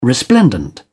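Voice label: "resplendent", British English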